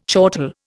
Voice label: "chortle", Indian